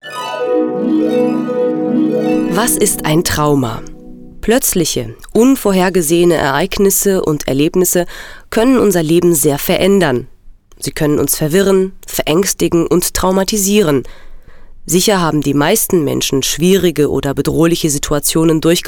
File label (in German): Deutsch; NAWA